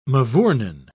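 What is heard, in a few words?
"mavournin", British English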